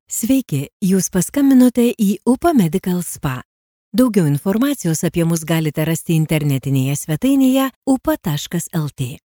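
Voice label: Lithuanian, female, narration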